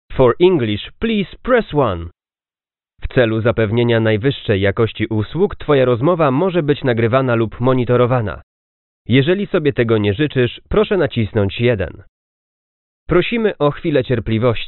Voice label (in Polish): ivr, nagrania lektorskie; Powitanie demo; Własne powitanie